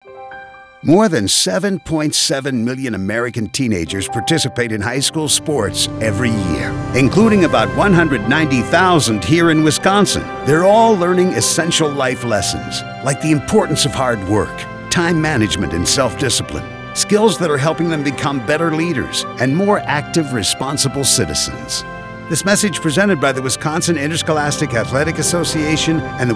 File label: audio spot